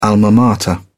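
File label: "alma mater", British English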